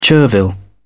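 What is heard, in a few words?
"chervil", British English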